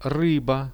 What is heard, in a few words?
"ryba", Russian; рыба; Это рыба.; products